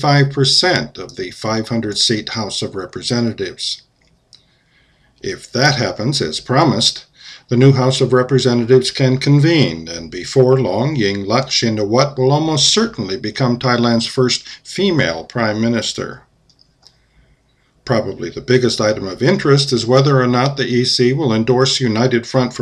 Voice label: announcement